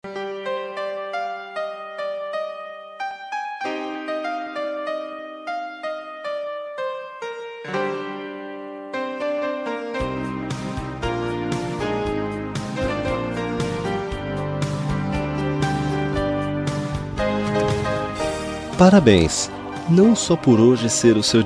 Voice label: dia dos amigos mascm amigo, voz masculina

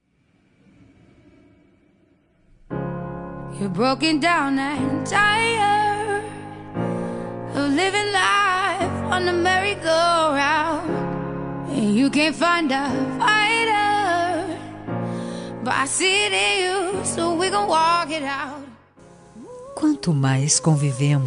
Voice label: dia dos namorados lgbt, voz feminina; para namorada

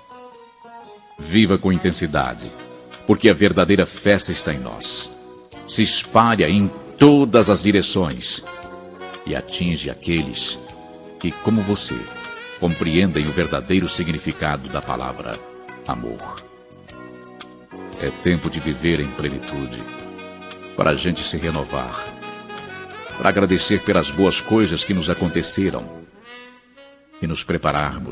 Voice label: neutra masc otimismo, Natal Pessoa Especial – Voz Masculina

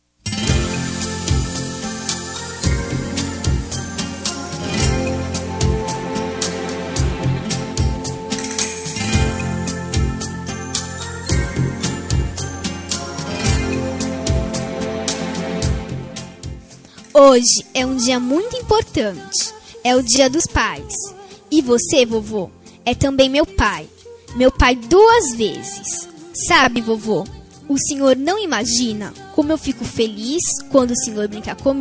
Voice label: infantil avo, voz infantil, voz feminina; dia dos pais para avo

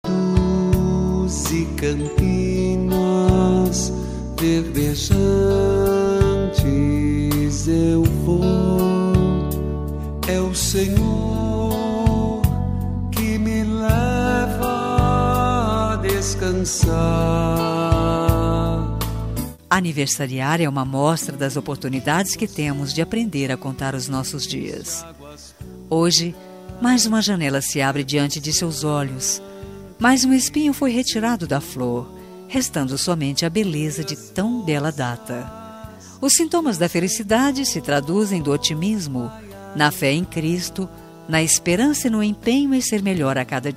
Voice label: religiosa fem, Aniversário Religioso – Voz Feminina

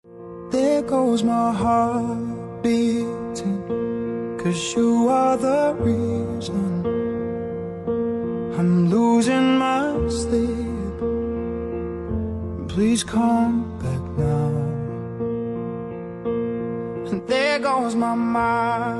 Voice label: reconciliacao fem; Reconciliação – Voz Feminina

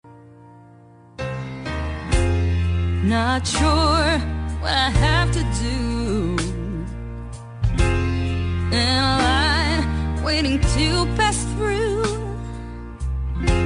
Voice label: amiga fem, Dia das Mulheres Para Amiga – Voz Feminina

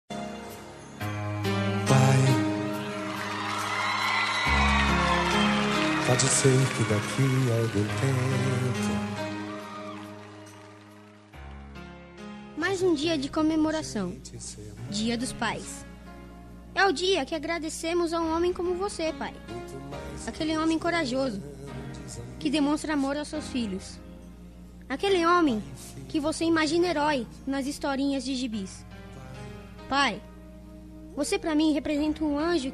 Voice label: masc ingfantil, voz infantil masculina

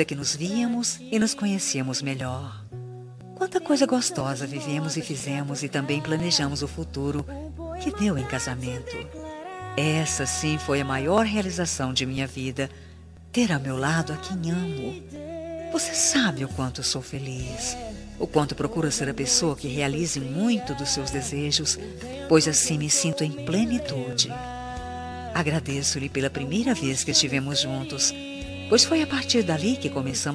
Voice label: dn gospel fem marido, dia dos namorados para marido evangelica voz feminina

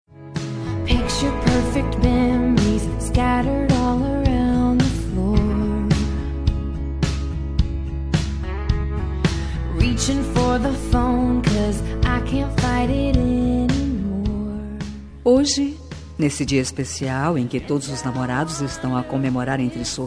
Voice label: paquera fem, Voz Feminina; dia dos namorados conquista voz feminina

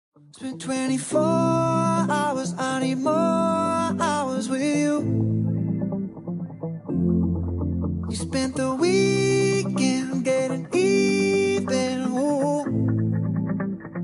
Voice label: aniver casamento fem, Telemensagem de Aniversário de Casamento Romântico – Voz Feminina; Telemensagens para todas as ocasiões

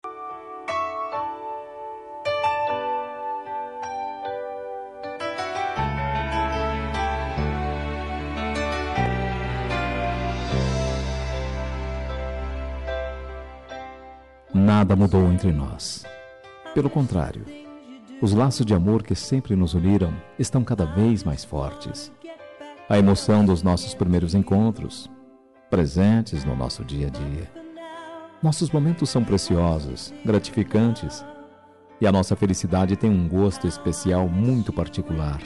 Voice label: dn esposa masc, dia dos namorados para esposa voz masculina

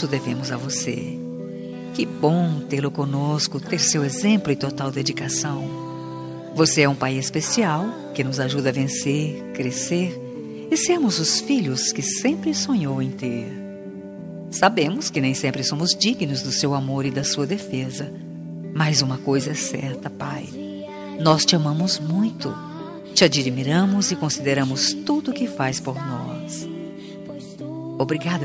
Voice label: dia pais plural fem gospel, voz feminina; Dia dos Pais

